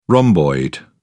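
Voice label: "rhomboid", British English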